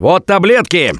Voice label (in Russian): Spot Pills, left4dead2 russian, Survivor Voice; Gambler